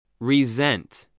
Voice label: "resent", English

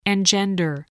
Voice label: "engender", spelling

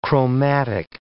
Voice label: "chromatic", English

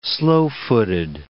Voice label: "slow-footed", english

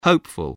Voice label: "hopeful", voice spelling

